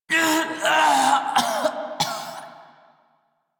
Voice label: Death, Male; Dialog; Warframe; Railjack Crew; Red Veil